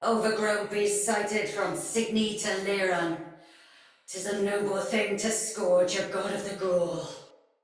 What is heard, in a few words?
Patrol Spoken Soldier Female; Battle; Barks; Dialog; Sinecure Soldier Female B